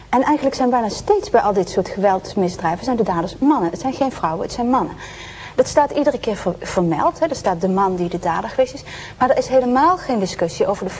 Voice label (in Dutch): Poldernederlands; geboren in 1968 te Schaesberg (L); studie Filosofie Utrecht (dr.); hoogleraar filosofie aan de Universiteit van Twente; Annemarie Mol